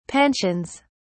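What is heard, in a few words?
"pensions", English, British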